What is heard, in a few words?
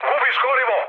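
Serbian tank damaged mood med; speech; War Thunder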